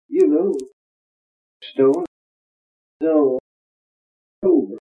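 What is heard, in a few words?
kent appledore, male, goat; accents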